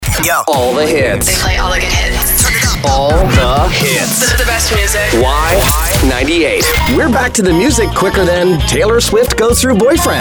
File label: radio